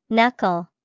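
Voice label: "knuckle", English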